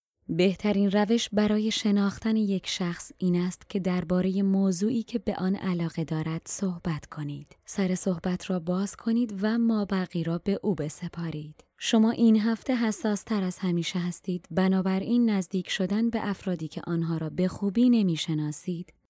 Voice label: Farsi Native

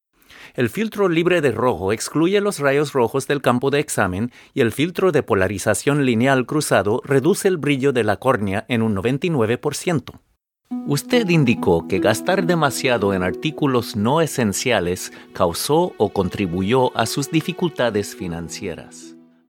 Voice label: Spanish Latin

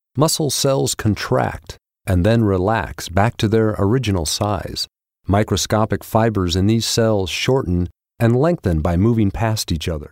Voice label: English Neutral

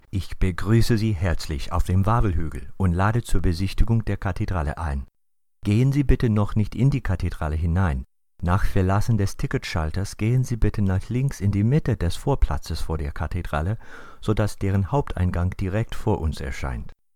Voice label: German Native

